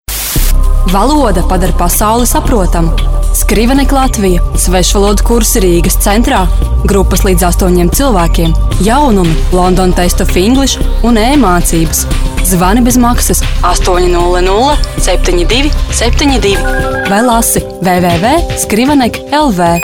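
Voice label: latvian female commercial; voice demos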